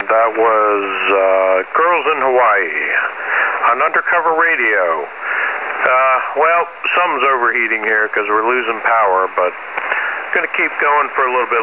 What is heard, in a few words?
3490 kHz; Undercoverradio